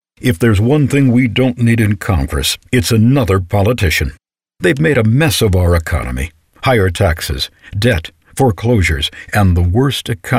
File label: Radio; Guilty